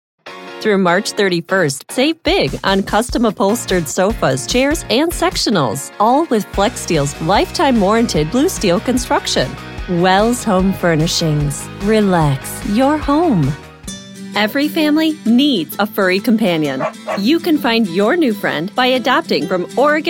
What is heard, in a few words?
Commercial